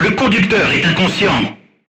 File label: voice message driver contused, crew tanks french